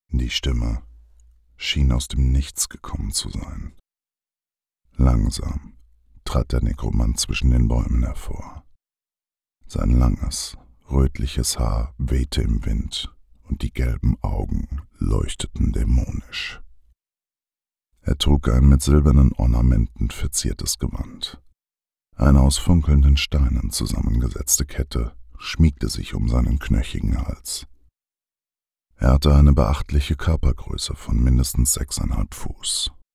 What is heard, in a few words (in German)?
Hoerprobe Hoerbuch Erzaehler